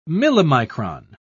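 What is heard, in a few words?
"millimicron", British English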